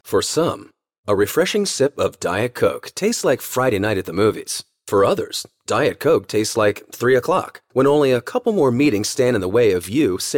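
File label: US, commercial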